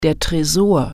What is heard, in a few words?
"vault", German